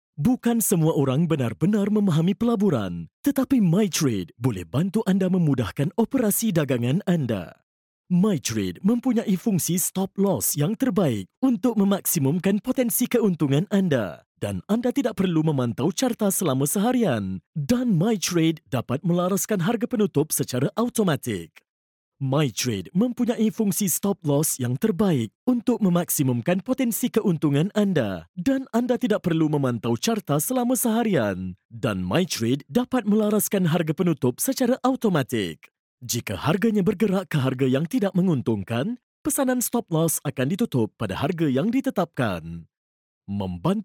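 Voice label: Malay, eLearning; GoLocalise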